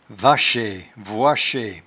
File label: "vachaie", patois jura